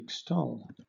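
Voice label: "extol", British English; pronunciations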